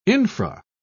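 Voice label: "infra", British English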